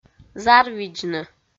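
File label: "зарвидзны", Komi, female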